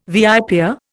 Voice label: "viper", Indian